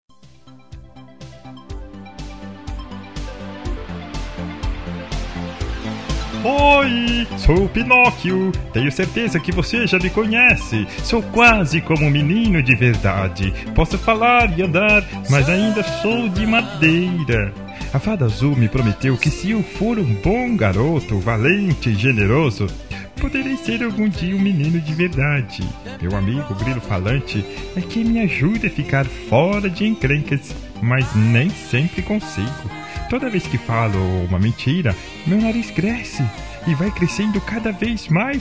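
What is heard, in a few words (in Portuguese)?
Aniversário de Criança, male; Pinóquio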